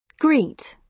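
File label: "greet", British English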